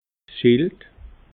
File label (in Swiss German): "schild", alsace